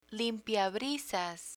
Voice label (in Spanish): "limpiabrisas", Spanish